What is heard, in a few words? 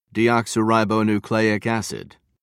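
"deoxyribonucleic acid", British English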